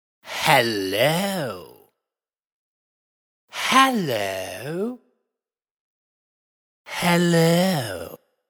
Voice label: Hello Spoken, Posh English character voice saying ‘Hello’ x 3.; Greetings and Goodbyes